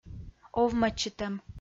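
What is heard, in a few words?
"овмӧдчытӧм", Komi, female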